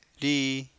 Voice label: "rii", copala triqui

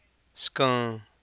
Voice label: "scaan", Copala Triqui

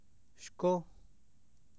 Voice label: "xcó", Copala Triqui

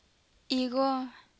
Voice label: "higó", Copala Triqui

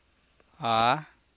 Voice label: "a̠a", Copala Triqui

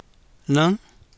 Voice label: "náán", Copala Triqui

